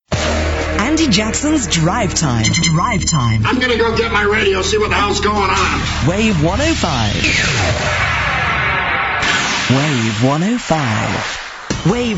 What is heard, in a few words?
radio imaging